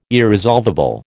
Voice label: "irresolvable", American